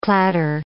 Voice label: "clatter", English

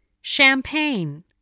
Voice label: "champagne", English, voice

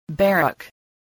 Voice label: "barrack", English

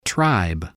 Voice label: "tribe", voice

